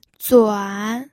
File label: "zuan3", pinyin